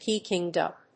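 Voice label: "peking duck", English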